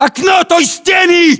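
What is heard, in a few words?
landmark near wall, battlechatter, Russian; localized english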